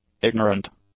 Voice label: "ignorant", English; adjectives

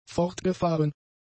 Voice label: "fortgefahren", German; adjectives

